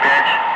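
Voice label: "5", spoken; Ka-50; Wingman; Digits; DCS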